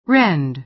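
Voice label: "rend", American English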